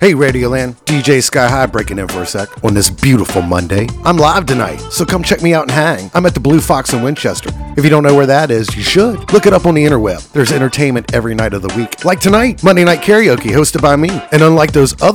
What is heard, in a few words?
Commercial